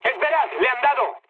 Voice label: aircraft destroyed mood med; War Thunder